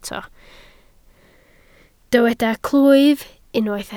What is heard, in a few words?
"clwyf", Welsh